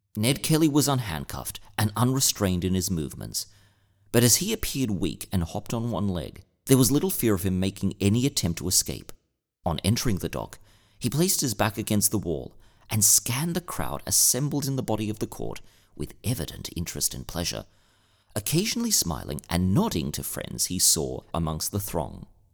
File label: Audiobook; Ned Kelly